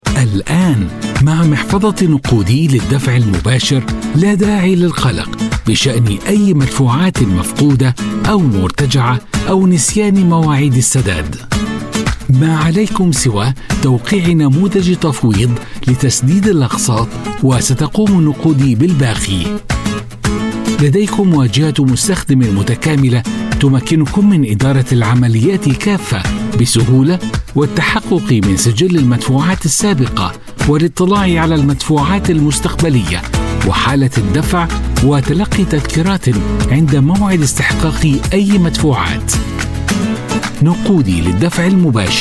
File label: arabic voice over male commercial